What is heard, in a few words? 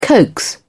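"coax", British English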